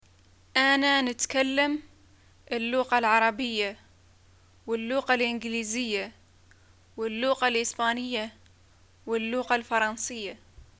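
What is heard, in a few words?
I speak languages, arabic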